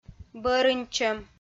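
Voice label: "бӧрыньтчӧм", Komi, female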